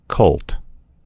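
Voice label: "colt", American English; gcompris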